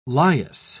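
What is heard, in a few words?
"lias", British English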